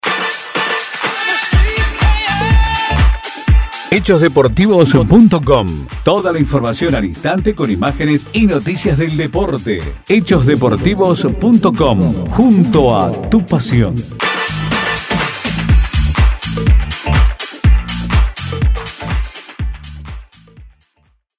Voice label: PROMO; HECHOS DEPORTIVOS; jujena de taekwondo; campeonato provincia de jujuy